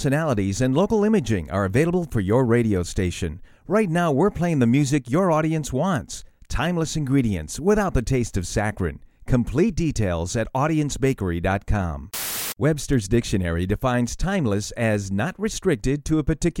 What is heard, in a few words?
Adult Variety Format Promos